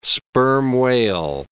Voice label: "sperm whale", English